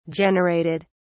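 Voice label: "generated", British English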